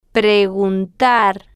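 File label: "preguntar" Spanish, female, slow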